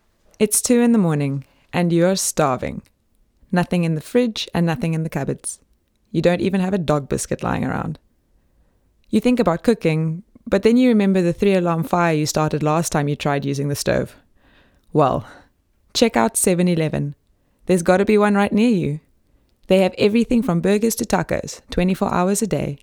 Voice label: soft sell

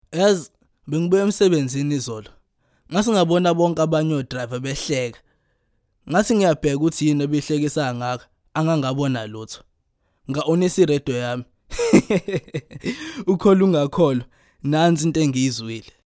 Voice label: zulu

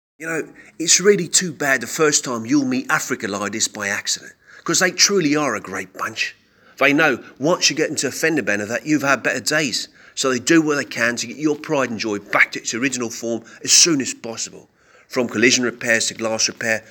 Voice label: cockney

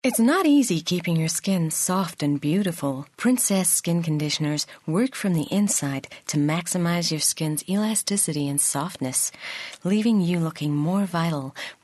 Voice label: american

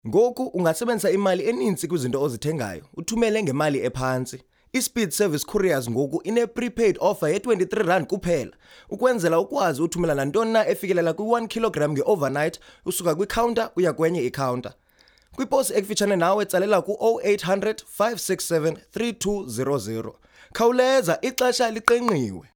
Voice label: hard sell xhosa

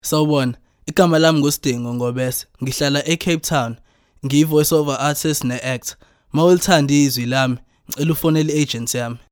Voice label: intro zulu

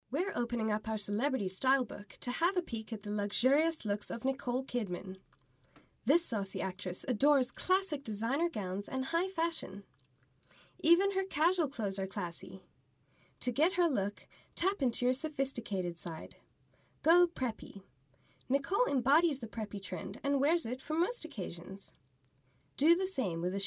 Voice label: accent american

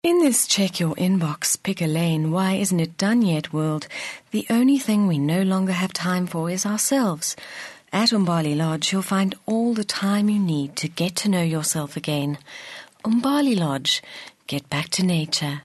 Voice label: soft sell

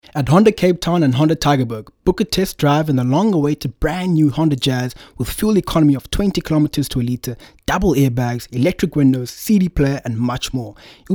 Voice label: hard sell

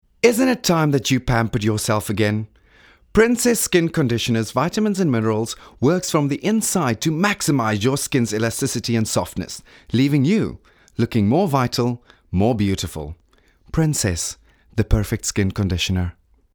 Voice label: soft sell

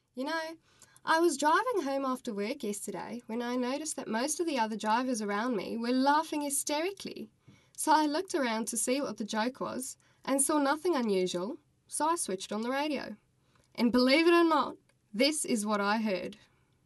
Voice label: accent austrailian

